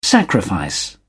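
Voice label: "sacrifice", English, British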